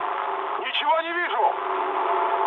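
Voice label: searchlite, mood med, Russian; War Thunder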